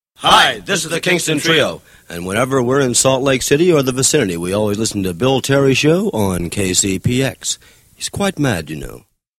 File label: radio spot